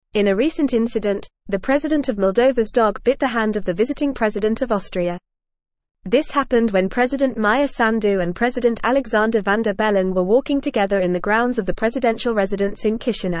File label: English Upper Intermediate FAST Reading; Moldovan Presidents Dog Bites Austrias President Va; English Newsroom